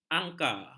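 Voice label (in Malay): "angka", Malay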